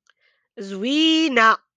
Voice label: "زوينة", beautiful (fem.)